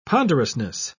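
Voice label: "ponderousness", British English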